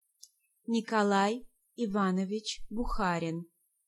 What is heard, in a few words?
"Bukharin", Pronunciation